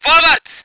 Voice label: voice ger vehicle